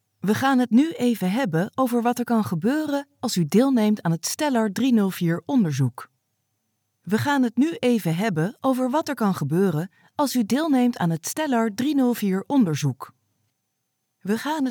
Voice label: female dutch voice over narrative